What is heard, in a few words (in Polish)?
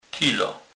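"tylo", kurpie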